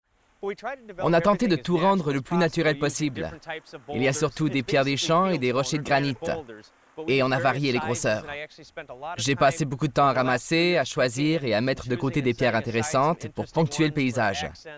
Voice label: surimpression vocale

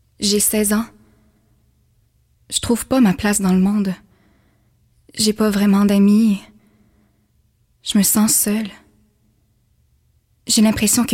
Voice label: annonceur francais quebecois naturel douce rassurante febrile; nous tecoutons

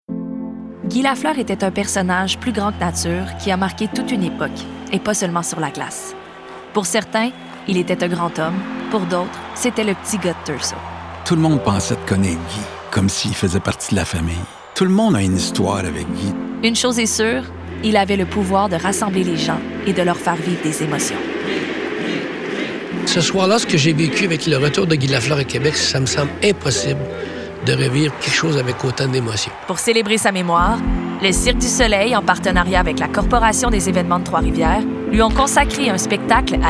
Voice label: fr qc naturel narration explicative promo sobre posee; lafleur eternel; adulte medium

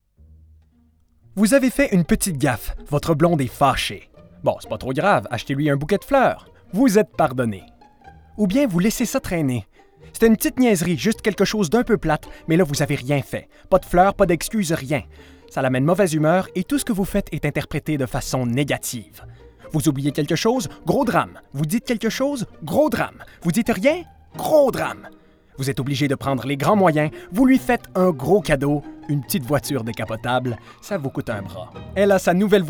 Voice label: pub humoristique fr qc naturel narratif comedie adulte medium

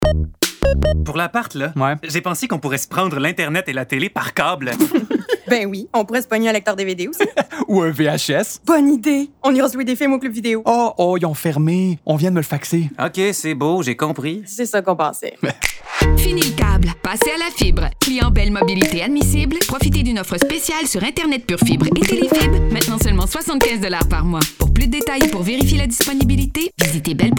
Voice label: radio conversation quotidienne personnage; bell colocs; fr; personnage de composition

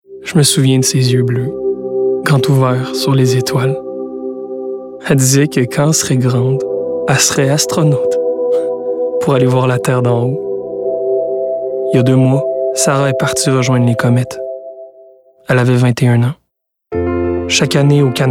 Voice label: fr qc naturel doux rassurant adulte medium; cancer

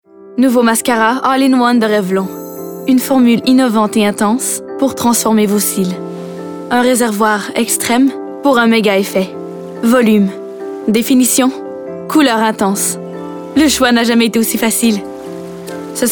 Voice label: annonceur fr normatif sensuelle charmante grave serieuse medium adult; revlon; adulte

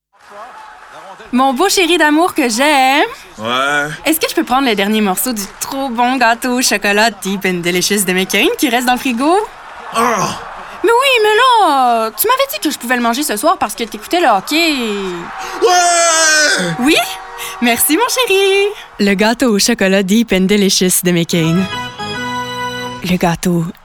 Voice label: voix pub

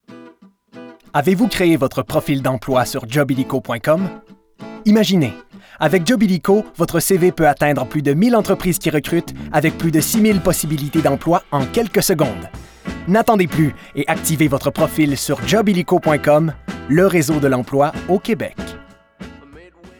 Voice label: promotion fr qc naturel energique promo adulte medium; jobillico

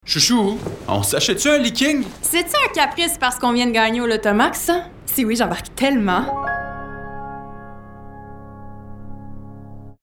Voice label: francais quebecois naturel personnage humour amicale avec sourire; lotto max; comedie jeune

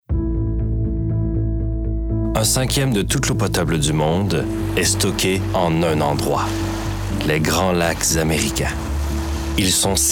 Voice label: narration

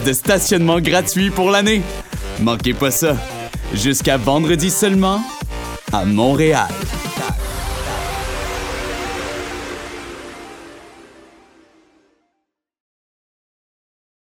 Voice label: pub annonceur fr qc naturel amical avec sourire affirme jeune adulte

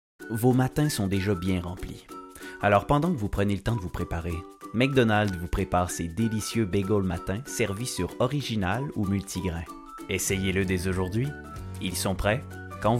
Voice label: annonceur fr qc naturel amical avec sourire promo sobre medium; mcdonalds; grave adulte

